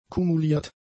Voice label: "kumuliert", German; adjectives

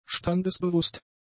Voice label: "standesbewusst", German; adjectives

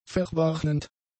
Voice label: "verwarnend", German; adjectives

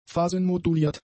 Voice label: "phasenmoduliert", German; adjectives

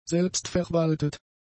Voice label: "selbstverwaltet", German; adjectives

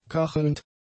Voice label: "kachelnd", German; adjectives

